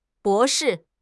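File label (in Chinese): "bo2 shi4", Chinese; HSK; HSK4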